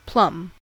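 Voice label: "plum", American English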